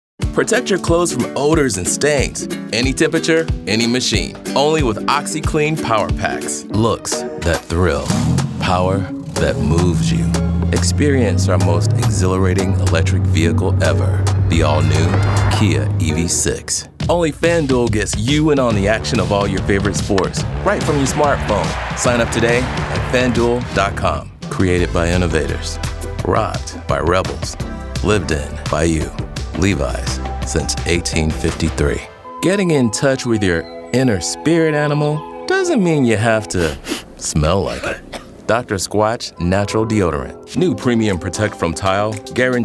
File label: commercial